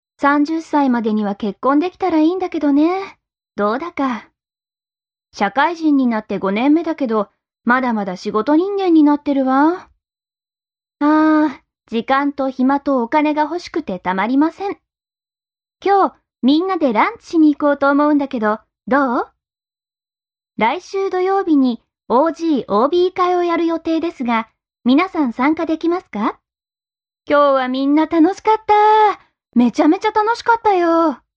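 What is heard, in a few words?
Japanese, female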